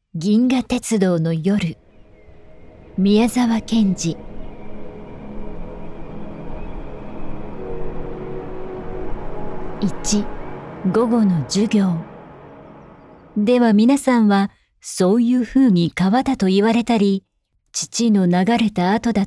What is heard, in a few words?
Audiobook